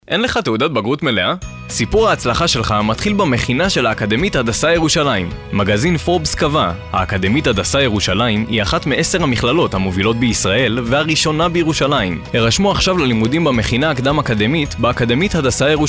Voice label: hebrew commercial; Israel; 25 years old from Israel; VO actor and DJ